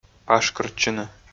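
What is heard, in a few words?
"пашкыртчыны", Komi, male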